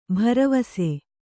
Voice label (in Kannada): "ಭರವಸ", Kannada